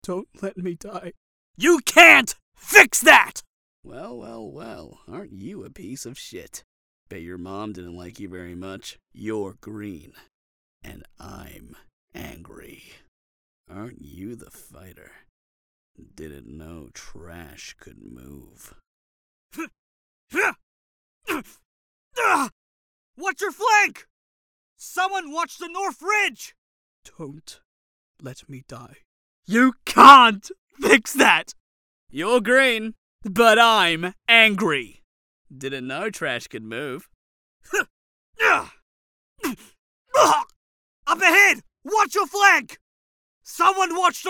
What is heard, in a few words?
Recruit Male